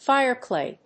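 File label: "fireclay", English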